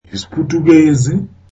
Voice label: zulu Portuguese

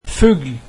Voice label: norwegian bird

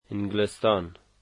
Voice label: England, dari

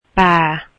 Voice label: finnish head

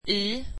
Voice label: swedish in

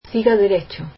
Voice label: "Go straight", Spanish

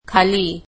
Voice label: marathi under

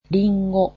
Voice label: "apples", japanese

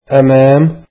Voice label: arabic in front of

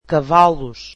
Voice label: "horses", portuguese

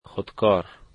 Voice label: "pen", Dari

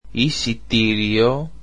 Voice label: greek ticket

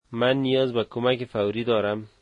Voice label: I need help immediately, dari

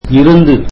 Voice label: tamil from

